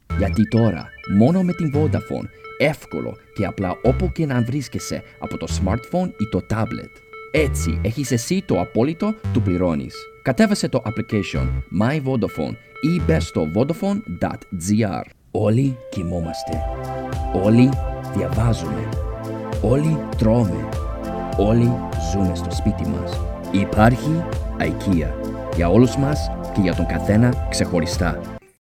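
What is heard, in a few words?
Greek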